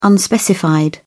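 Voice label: "unspecified", British English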